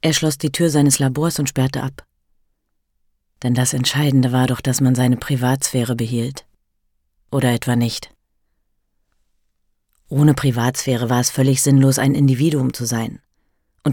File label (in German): hoerbuch, deutsch frauen; sprecher